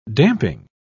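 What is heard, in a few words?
"damping", British English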